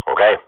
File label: radio bot reponse positive, Counter-Strike swat; Global Offensive; player vo